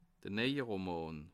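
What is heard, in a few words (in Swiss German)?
"neie", Bas Rhin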